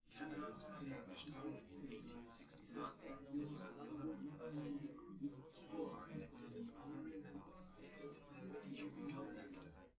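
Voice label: male, live recording; SiSEC11; underdetermined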